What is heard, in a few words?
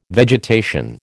"vegetation", American